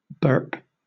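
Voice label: "burp", British English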